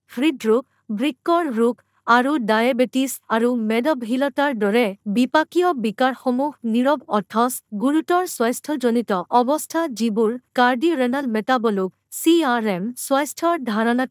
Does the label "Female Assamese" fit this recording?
Yes